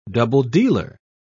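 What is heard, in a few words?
"double-dealer", British English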